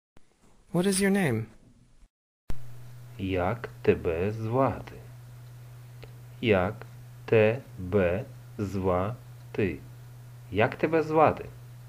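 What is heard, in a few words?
Ukrainian What is your name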